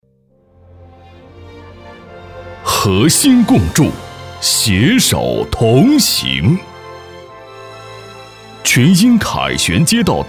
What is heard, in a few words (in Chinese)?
男 政府专题片